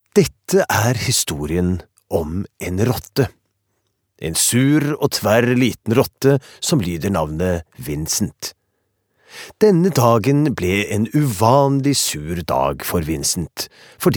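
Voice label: Norwegian dub narrator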